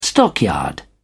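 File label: "stockyard", English, British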